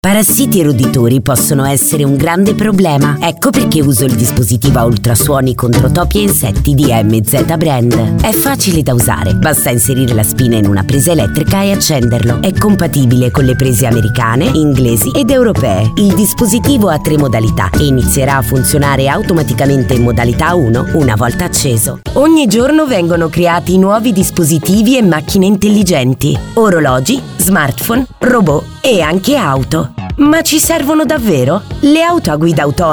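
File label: Italian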